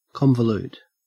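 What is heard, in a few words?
"convolute", Australian English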